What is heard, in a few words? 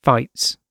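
"fights", British English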